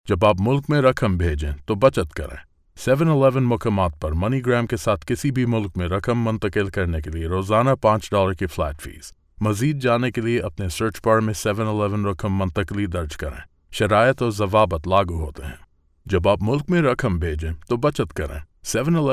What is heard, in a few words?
locutor urdu voiceover; locutores urdu india